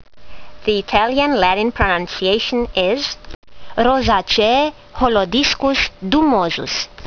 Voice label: latin; Holodiscus dumosus